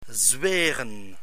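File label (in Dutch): "zweren", Dutch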